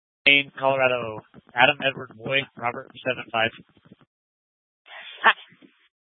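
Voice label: Incident Tactical, scanner audio recording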